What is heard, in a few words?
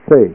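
"se", Japanese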